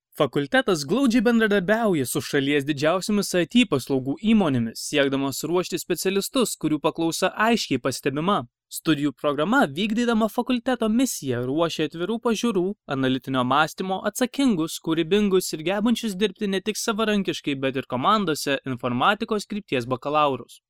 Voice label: male lithuanian voice over talent narrative